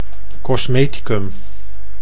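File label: "cosmeticum", Dutch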